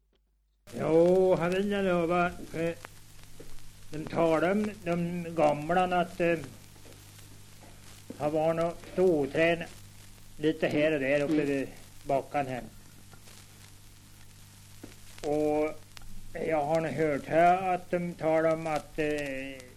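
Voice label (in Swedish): Tuna Medelpad, dialekter, Svenska sagor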